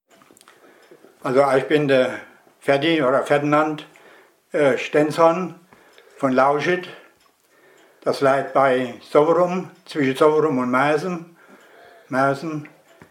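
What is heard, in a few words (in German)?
stellt sich vor, Hunsrück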